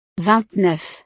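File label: "vingt neuf", French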